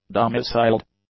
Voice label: "domiciled", English; adjectives